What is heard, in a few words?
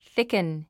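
"thicken", English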